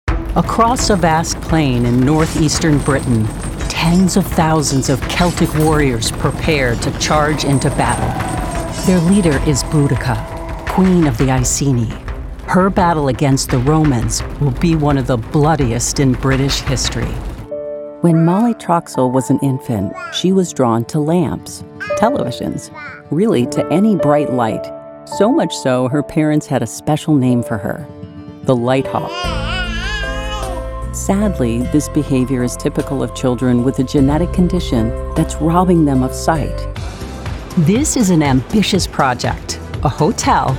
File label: Narration